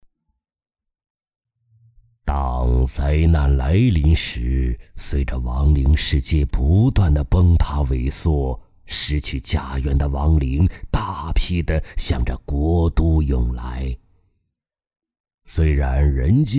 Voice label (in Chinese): 角色模仿样音